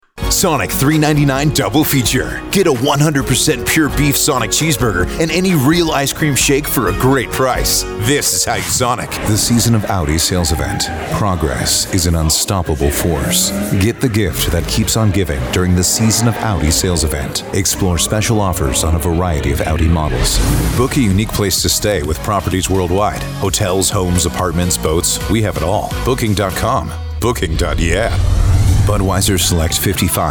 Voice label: Modern Trailer Voice National Commercials